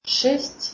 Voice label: Belarusian